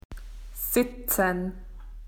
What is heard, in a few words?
"to sit", AU; PictureDictionary